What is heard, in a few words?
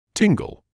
"tingle", English